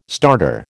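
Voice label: "starter", American English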